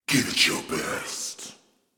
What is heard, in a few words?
evil; VO; arcane